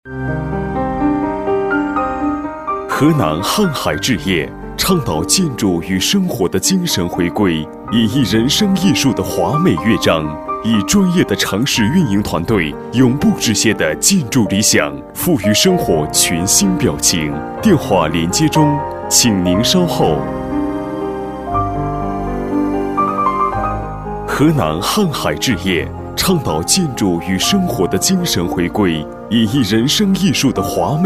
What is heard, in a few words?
boy cailing, Chinese